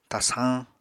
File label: "tasañ", apatani